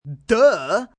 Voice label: Duh, Attitude, male: 'Duh!!', (human voice speaking); Talking; VoiceBox